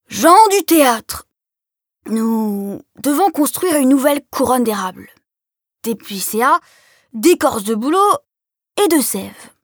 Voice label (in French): Livre audio enfants vieil homme